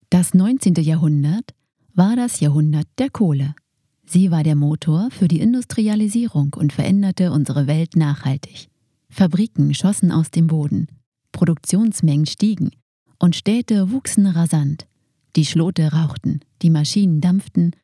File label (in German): Doku - Industrie, Sprecherin